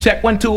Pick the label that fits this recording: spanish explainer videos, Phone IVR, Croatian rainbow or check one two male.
check one two male